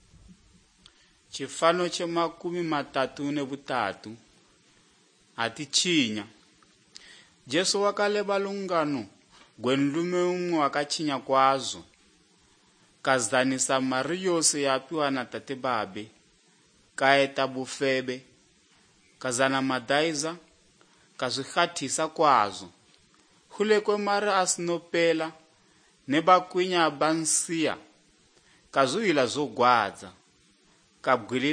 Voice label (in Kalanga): good news kalanga lilima, drama